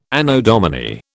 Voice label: "Anno Domini", British